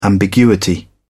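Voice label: "ambiguity", English, British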